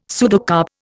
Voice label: "pseudocarp", Indian; Dictionary

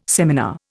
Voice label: "seminar", Indian

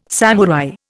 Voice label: "samurai", Indian

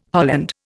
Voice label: "holland", Indian

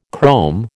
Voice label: "chrome", American

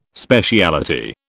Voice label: "speciality", British

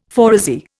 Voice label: "phoresy", Indian; Dictionary

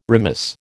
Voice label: "remiss", American

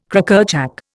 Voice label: "Crackerjack", Indian

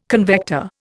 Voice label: "convector", Indian

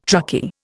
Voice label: "truckie", Indian

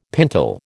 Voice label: "pintle", American

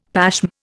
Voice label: "pashm", Indian; Dictionary

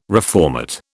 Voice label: "reformat", British

